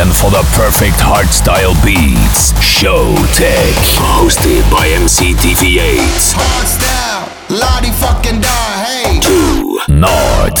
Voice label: party commercial; metropolis 17 years